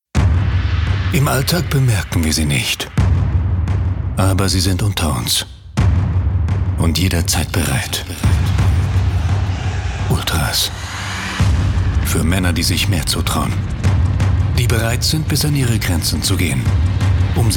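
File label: German, male, character trailer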